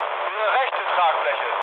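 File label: German right wing mood low, speech; War Thunder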